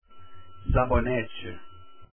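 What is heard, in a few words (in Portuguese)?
"sabonete" Portuguese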